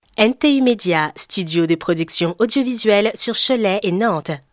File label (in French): voix off